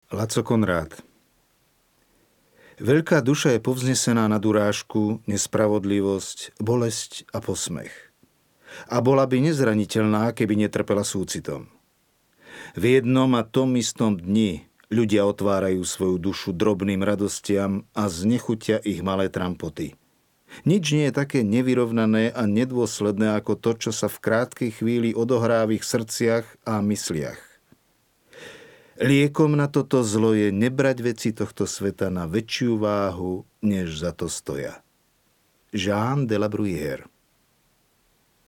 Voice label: slovak m narration; voice demos